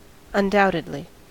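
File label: "undoubtedly", English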